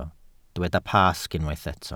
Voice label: "Pasg", Welsh